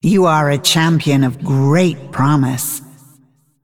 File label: Female Dragon Well Played